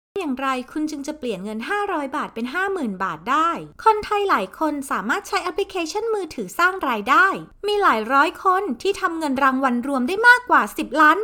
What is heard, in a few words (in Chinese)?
泰语女, 外语; 小语种